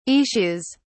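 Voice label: "issues", British English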